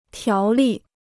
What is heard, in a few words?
"条例", Chinese